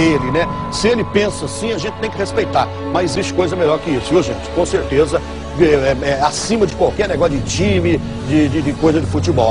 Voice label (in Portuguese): torcedor do galo chorando eu preferia morrer